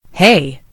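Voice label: "hay", American English; gcompris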